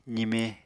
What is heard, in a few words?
"zime", Apatani